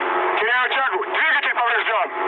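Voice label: Russian damaged engine mood med, speech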